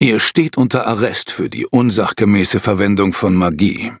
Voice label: loading, de; cookie run